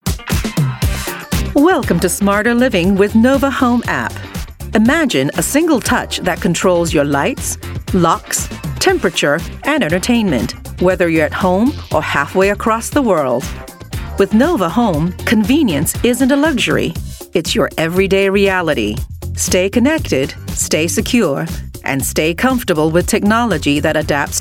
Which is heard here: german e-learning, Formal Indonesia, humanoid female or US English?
US English